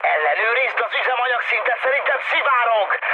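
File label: tank damaged, mood med, Hungarian; War Thunder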